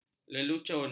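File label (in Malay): "lelucon", Malay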